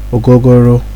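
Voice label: "ogogoro", Nigerian Pidgin, male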